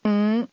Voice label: "five", Cantonese